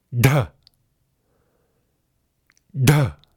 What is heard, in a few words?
"dha", Sanskrit